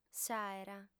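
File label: "s'àera", Sardinian, female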